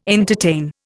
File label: "entertain", Indian